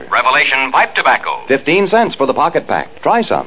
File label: Commercials and Radio, VOCALS and SPOKEN WORD; revelation pipe tobacco